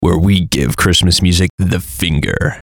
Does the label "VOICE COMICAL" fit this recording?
Yes